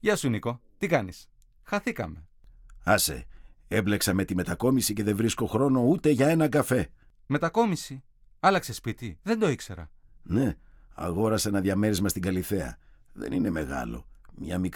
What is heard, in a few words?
dialog